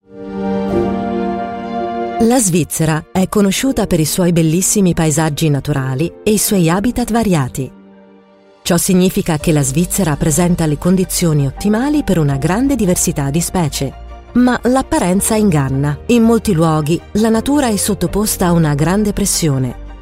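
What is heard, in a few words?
Italian, Documentary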